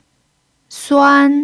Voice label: "suan1", Mandarin pinyin

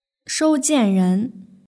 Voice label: "shoujianren", Mandarin; jibaoguo

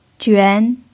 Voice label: "juan2", Mandarin pinyin

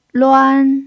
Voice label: "luan1", Mandarin pinyin

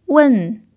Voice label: "wen4", Mandarin pinyin

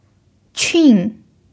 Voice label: "qun4", pinyin

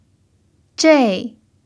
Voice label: "zhei4", Mandarin pinyin

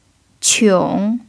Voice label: "qiong3", mandarin pinyin

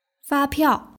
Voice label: "fapiao", Mandarin; maidan

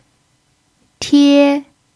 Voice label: "tie1", Mandarin pinyin

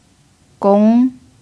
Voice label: "gong2", mandarin pinyin

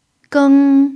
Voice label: "geng1", Mandarin pinyin

